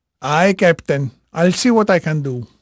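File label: Portuguese male, voice; Pirates Of The Caribbean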